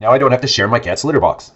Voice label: Male Litter Box; blastmorph pinball; xbox series xs

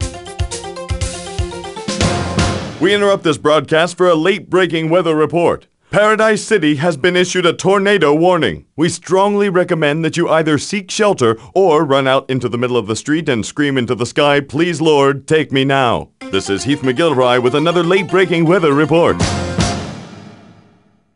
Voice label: News Tornado; Roadkill; PS2; Xbox; GC

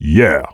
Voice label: "Yeah", Male; blastmorph pinball; xbox series xs

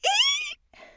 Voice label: female wondering; Voices; lucius ii the prophecy

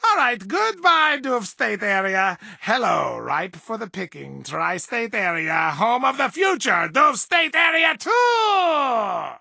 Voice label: English Voice

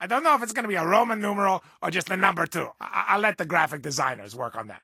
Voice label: English Voice

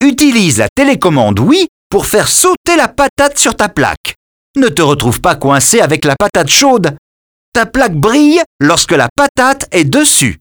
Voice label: hotpotato vo, French; Birthday Party Bash; Wii

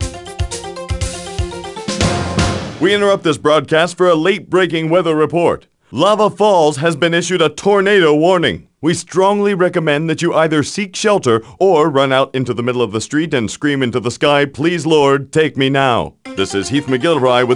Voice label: News Tornado

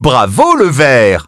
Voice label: French - dialogue, Game Results Winner

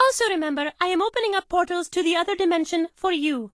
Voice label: English Voice

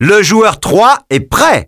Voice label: Player 3 ready, French; Birthday Party Bash; Wii

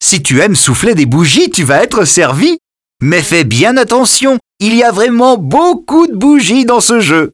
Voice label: blowout welcome vo, French; Birthday Party Bash; Wii

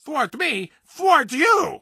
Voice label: English Voice

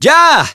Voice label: "go", Spanish

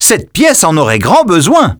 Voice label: French, dialogue, reveal

